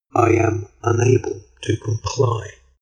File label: robot vocal response negative